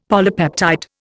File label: "polypeptide", Indian; Dictionary